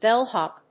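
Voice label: "bellhop", English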